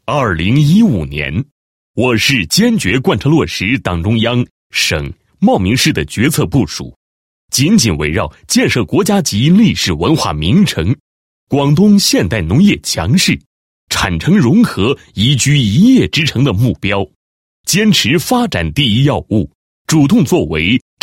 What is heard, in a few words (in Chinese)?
男 解说词 经济社会发展情况回顾解说词, 国语男声配音